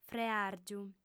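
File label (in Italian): "freàrgiu", lingua sarda, female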